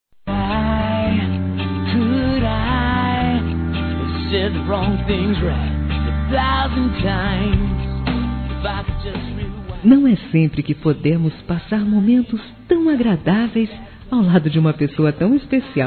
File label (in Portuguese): Obrigado por Ontem Feminino; Telemensagens; Telemensagens 2017